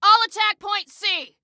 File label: ATTACK, Female